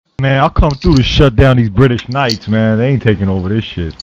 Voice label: lament shut down british

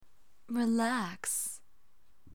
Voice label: relax voice

